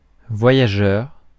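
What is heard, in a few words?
"voyageur", French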